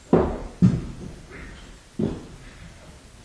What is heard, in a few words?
witch we need help